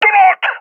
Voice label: taking fire friendly, balkan; vo; balkan epic